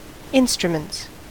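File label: "instruments", US English; pronunciations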